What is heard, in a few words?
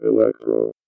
VOICEFX ELCTR 140; Techno2